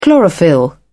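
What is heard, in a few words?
"chlorophyll", English, British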